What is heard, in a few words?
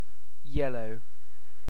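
"yellow", British English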